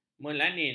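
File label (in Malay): "melanin", Malay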